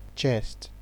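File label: "chest", Nigerian Pidgin, male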